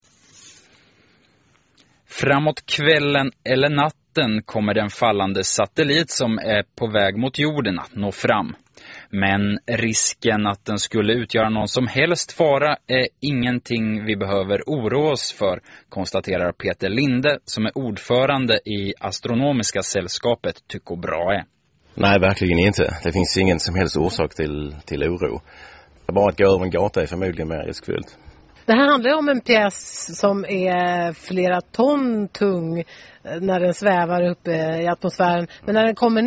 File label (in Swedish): Nyheter; SR MALM